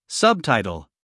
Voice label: "subtitle", us male; everyone-can-use-english